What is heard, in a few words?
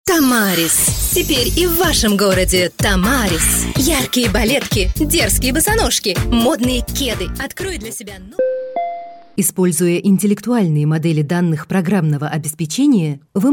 Russian Female